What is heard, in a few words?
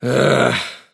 anger; davion; dota; dragon knight